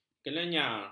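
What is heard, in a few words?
"kelenyar", Malay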